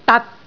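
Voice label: "dat6", Cantonese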